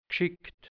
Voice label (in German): "gschickt", Mundart, Burgenland, hianzisch; geschickt